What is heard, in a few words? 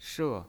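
"she", Mandarin Chinese pinyin, fourth tone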